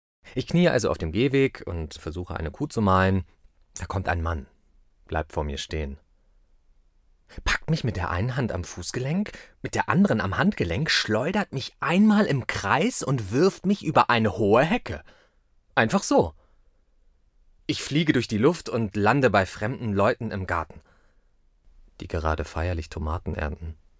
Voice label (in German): Hoerbuch humorvoll; LOFT VOICES